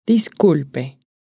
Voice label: "disculpe", Spanish

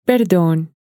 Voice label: "perdon", Spanish

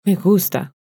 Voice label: me gusta, Spanish

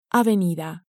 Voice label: "avenida", Spanish

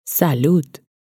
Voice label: "salud", Spanish

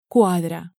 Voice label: "cuadra", Spanish

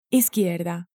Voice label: "izquierda", Spanish

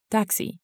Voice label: "taxi", Spanish

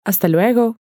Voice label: "hasta luego", Spanish

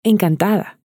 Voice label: "encantada", Spanish

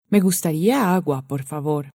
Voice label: me gustaria agua, Spanish

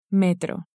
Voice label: "metro", Spanish

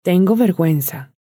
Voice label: tengo verguenza, Spanish

